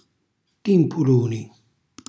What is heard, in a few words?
"timpuluni", Calabrese; cucinacalabrese; glossario